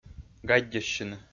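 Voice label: "гаддьӧссьыны", Komi, male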